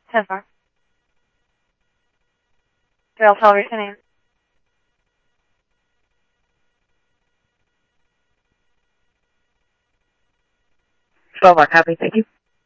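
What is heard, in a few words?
police department, scanner audio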